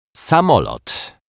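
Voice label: "samolot", Polish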